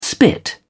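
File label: "spit", British English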